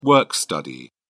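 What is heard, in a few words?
"work study", British English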